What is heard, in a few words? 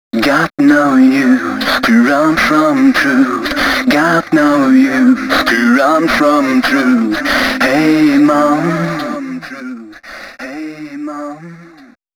male d#130, Dance Ultra Voice hooklines; Mutekki Media; Dance Ultra Voice VOL-1